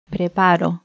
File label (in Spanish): acento lexical "preparo", Cuba, Pinar del Río femenina; spansk; fonetica